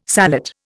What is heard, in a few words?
"sallet", Indian